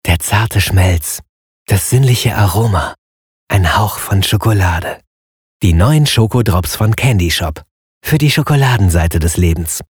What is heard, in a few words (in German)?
werbung, Sprecher